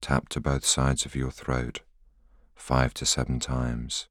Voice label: voiceover